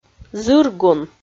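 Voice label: "зыргун", Komi, female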